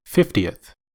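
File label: "fiftieth", US English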